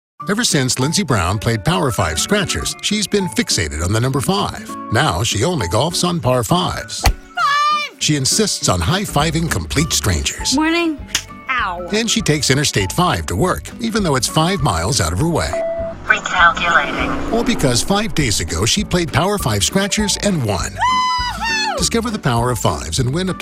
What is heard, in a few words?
Commercials